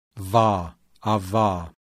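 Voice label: voiced labiodental fricative; IPA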